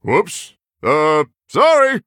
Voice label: Human Emote Sorry; Reno Jackson